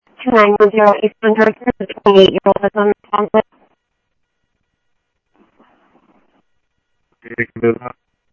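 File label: Simulcast Chandler Patrol B, scanner; Chandler-Ptrl B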